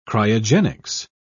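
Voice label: "cryogenics", British English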